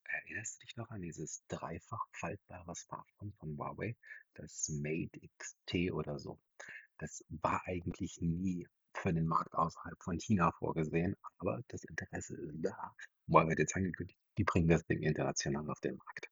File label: Huawei FreeBuds Pro 4 Mic Sample; In-Ears; kabellose In-Ears